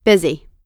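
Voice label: "busy", American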